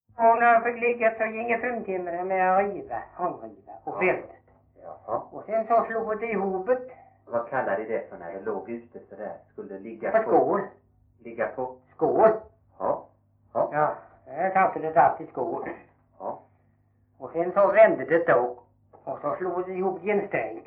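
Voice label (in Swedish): Veddige Halland, Svenska sagor dialekter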